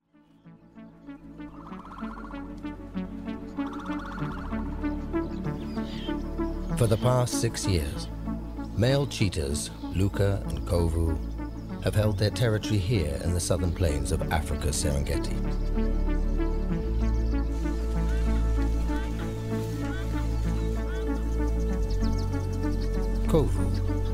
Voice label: NARRATION; PREDATORS